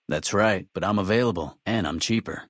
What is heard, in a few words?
male adult gambler asks; FNV